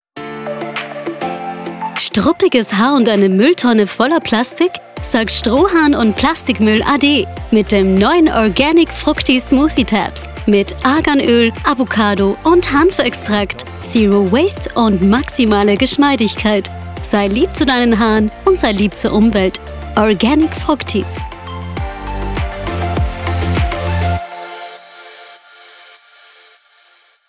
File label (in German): mit Musik; Fructis